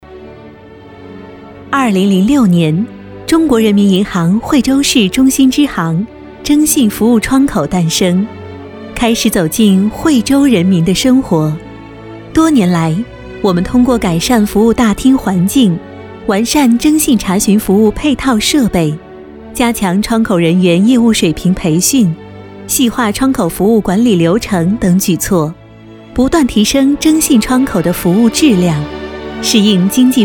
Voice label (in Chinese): 专题 银行 亲切; 中国人民银行惠州